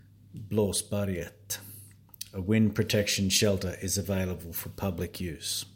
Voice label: English voice; Blasberget; bole